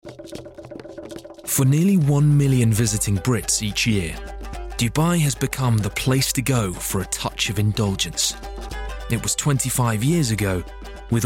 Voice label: narrative

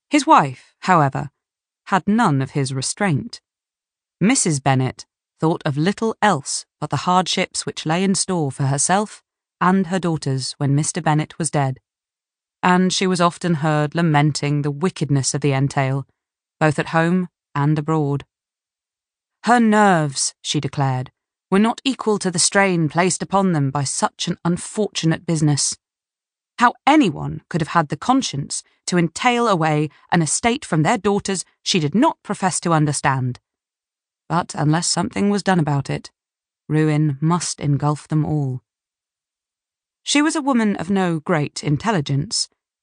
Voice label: Audiobook Sample; The Other Bennet Sister

